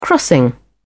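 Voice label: "crossing", British English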